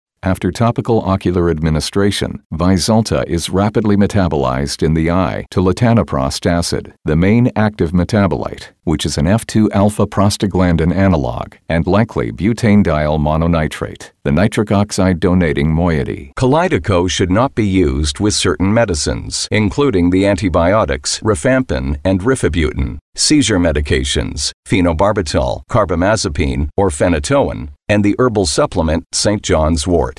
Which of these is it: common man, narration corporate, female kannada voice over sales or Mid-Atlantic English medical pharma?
Mid-Atlantic English medical pharma